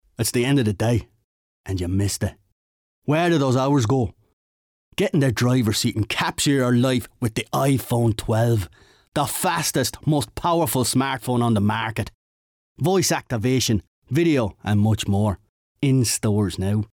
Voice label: irish; iPhone12

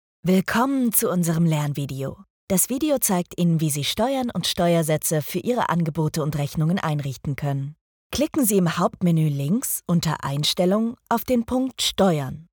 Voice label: E-Learning

